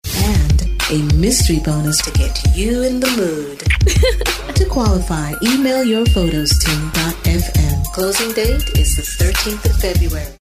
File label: Radio Promo